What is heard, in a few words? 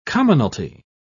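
"commonalty", British English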